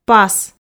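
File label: "пас", Komi, female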